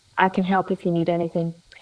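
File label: Voice Acting es, female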